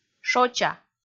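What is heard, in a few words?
"шоча", Komi, female; kpv audio dict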